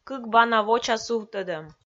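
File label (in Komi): "кык бана воча сувтӧдӧм", Komi, female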